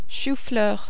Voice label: "chou fleur", French